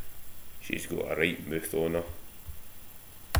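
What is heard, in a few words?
"mooth", slang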